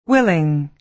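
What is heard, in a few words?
"willing", American English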